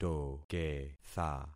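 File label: "toketha", concatenated syllables; Affixing experiment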